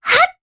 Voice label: Vox Casting, jp; Luna; kingsraid

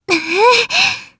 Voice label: Casting, jp; Frey; kingsraid

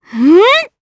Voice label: Casting, jp; Pansirone; kingsraid; voices heroes

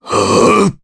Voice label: Casting, jp; Crow; kingsraid

